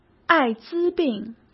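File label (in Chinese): "艾滋病", Mandarin Chinese; hsk; chinese-flashcards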